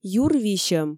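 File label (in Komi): юр висьӧм, Komi, female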